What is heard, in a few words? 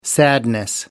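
"sadness", English, British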